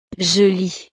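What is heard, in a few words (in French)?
"je lis", French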